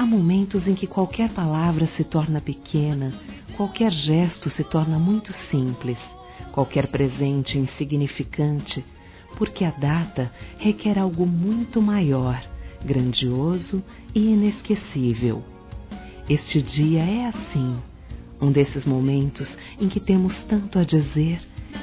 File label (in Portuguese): Aniv. Casamento TERCEIROS f, Telemensagens; Aniversários